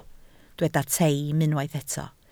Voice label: "teim", Welsh